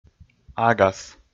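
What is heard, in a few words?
"агас", Komi, male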